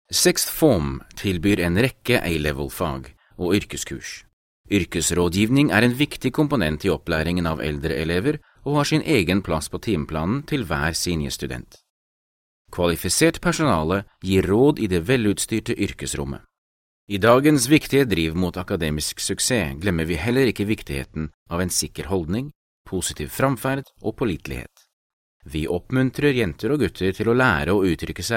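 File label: Norwegian, General; GoLocalise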